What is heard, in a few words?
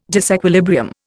"disequilibrium", Indian